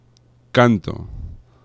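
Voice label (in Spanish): "canto", Spanish; laboratori fonetica; nasals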